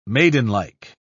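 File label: "maidenlike", British English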